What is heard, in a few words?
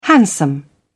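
"handsome", British English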